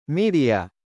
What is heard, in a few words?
media Male